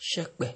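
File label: "shepe", Nigerian Pidgin, male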